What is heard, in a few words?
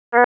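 Police Northeast District Disp, radio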